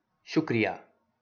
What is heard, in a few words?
Thank You Urdu